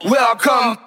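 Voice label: "welcome", male; introductions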